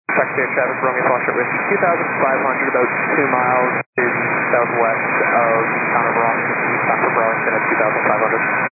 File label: 122 925MHz, scanner radio